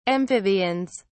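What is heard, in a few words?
"amphibians", English, British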